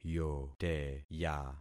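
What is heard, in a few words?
"yoteya", concatenated syllables; Affixing experiment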